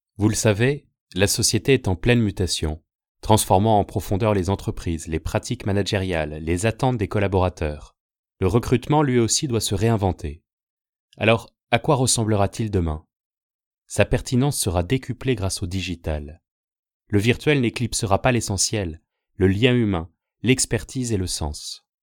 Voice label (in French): Essai voix off